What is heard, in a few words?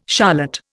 "charlotte", Indian